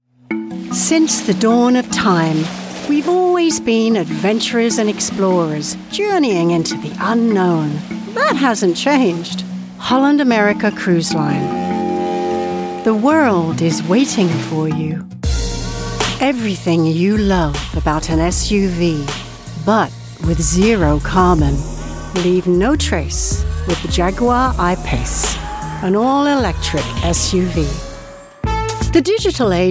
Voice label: commercial, Mid Atlantic